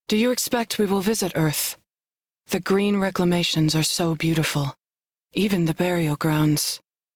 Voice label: Crew Hired, Female; Dialog; en; Warframe; Railjack Crew; New Loka

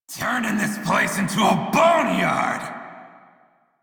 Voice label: Crew Enemy Defeat Male en; Dialog; Warframe; Railjack Crew; Red Veil

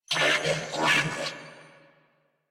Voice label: Attack Grineer Male; New War; Narmer

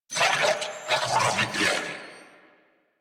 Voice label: Player Spotted Grineer Male; New War; Narmer

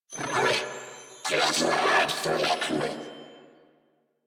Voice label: Patrol, Female; en; Warframe; New War; Narmer Grineer

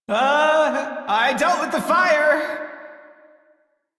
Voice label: Crew Fix Fire, Male, en; Dialog; Warframe; Railjack Crew; Perrin Sequence

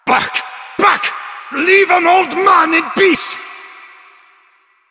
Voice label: Male Older; Villagers; en; Ostron; Warframe; Cetus Invasion

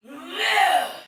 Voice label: Attack Heavy, Soldier Female, Battle; Sinecure

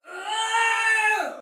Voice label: Battle Cry Soldier Female; Dialog; Sinecure

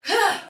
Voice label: Attack, Soldier Female, Battle; Sinecure

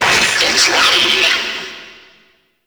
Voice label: Friendly Hack Vocal; Warframe; Entrati; Necramech Hack

